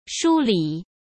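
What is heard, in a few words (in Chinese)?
"梳理", Mandarin Chinese; chinese flashcards; hsk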